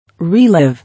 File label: "Relive", English